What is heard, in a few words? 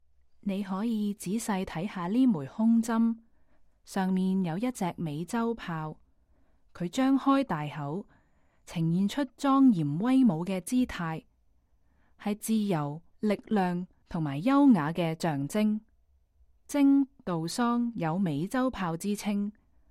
Cantonese, audio guide; cartier and women